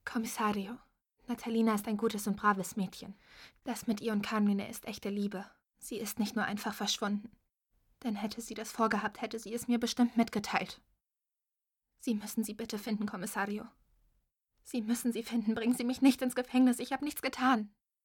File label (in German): MALTESE Stimmprobe; Commissario Maltese, ZDF/SAT1 - Concetta